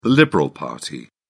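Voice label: "liberal party", British English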